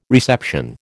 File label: "reception", American